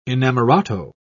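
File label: "inamorato", British English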